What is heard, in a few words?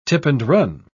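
"tip-and-run", British English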